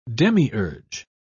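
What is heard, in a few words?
"demiurge", British English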